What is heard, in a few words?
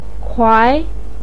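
"kuai", Mandarin Chinese, second tone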